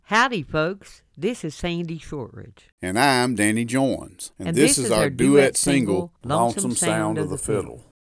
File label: Radio Liner